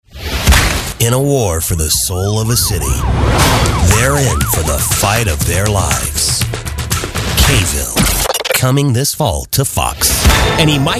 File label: trailers men